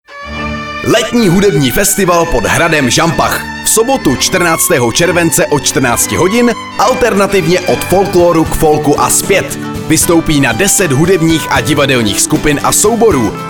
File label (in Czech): reklama rozhlas